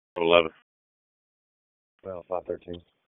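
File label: Police Southeast District Dispatch; Raleigh; p25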